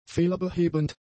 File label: "fehlerbehebend", german; adjectives